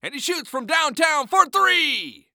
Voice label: Sports Human Voice Male Basketball Announcer